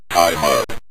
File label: time up, synth voice; Kenney's Sound Pack